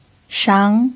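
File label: "shang", second tone, Mandarin Chinese pinyin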